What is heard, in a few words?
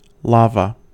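"lava", US English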